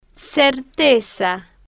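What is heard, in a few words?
"certeza", Spanish, slow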